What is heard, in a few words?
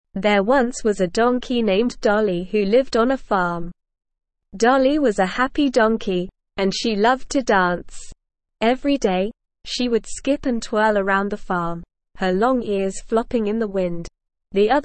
ESL Short Stories for Kids SLOW reading; Dolly the Dancing Donkey